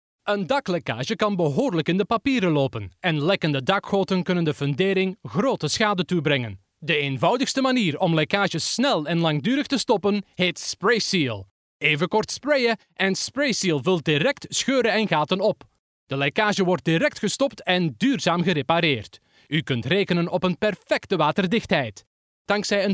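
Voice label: Flemish, male, voice over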